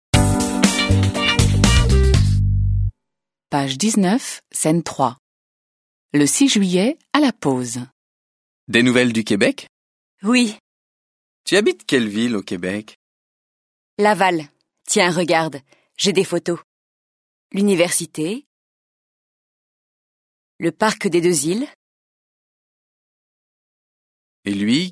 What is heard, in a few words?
French